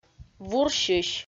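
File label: "вурсьысь", Komi, female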